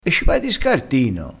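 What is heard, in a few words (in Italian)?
"scartino", dialettale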